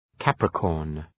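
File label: "Capricorn", English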